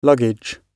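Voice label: "luggage" British English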